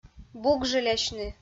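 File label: "бугжылясьны", Komi-Zyrian, female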